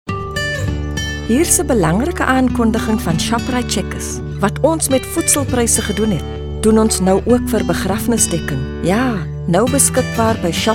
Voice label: Afrikaans Corporate

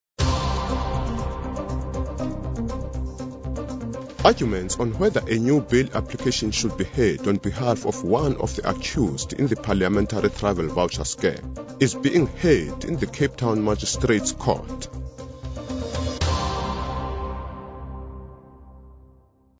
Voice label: Corporate News

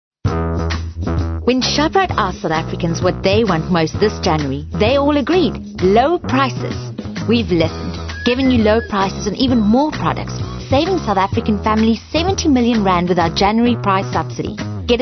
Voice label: Hard Sell

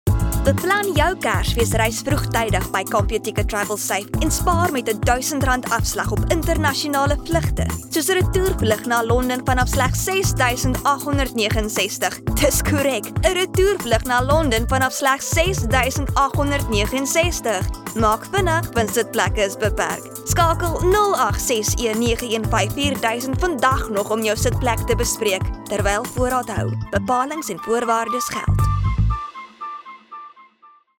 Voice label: Afrikaans Hard Sell

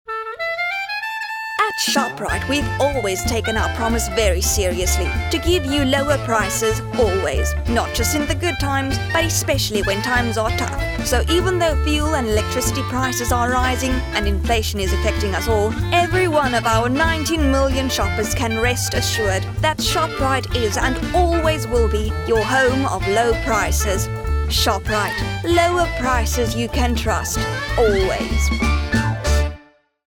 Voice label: English Hard Sell

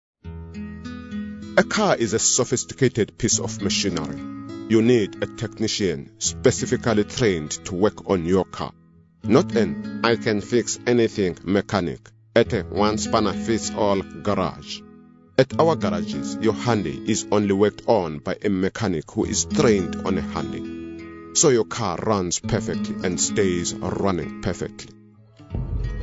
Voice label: Soft Sell; Hyundai

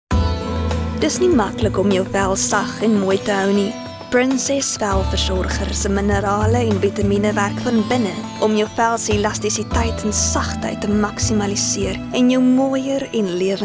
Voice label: Afrikaans Soft Sell

